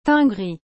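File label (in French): "teint gris", French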